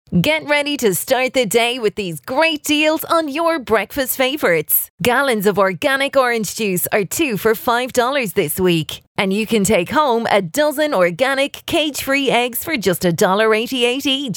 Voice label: Casual Conversational